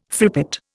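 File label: "frippet", Indian English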